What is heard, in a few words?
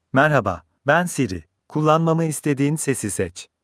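Turkish; tr-TR; iOS Sounds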